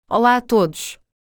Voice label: Portuguese Greetings everyone